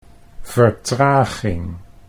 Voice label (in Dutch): "vertraging", Dutch